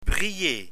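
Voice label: "briller", French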